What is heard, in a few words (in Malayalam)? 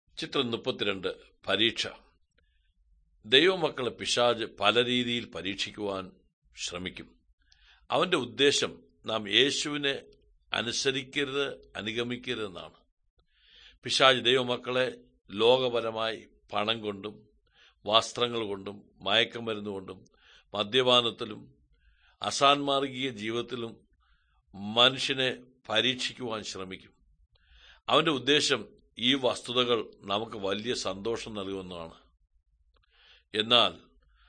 good news malayalam, drama